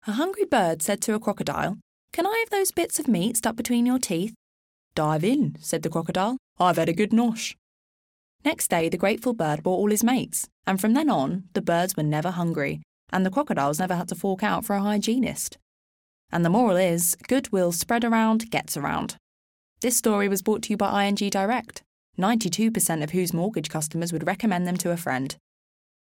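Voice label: English UK, Narrative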